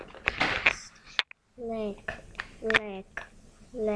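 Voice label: "lick", spoken word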